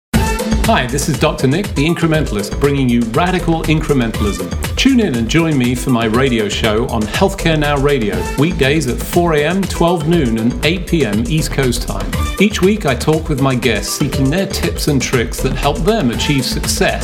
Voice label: Promo; Incrementalist